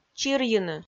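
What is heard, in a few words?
"чирйыны", Komi, female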